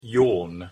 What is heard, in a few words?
"yawn", word